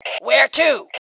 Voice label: Need Where To, Female; T2 Female 04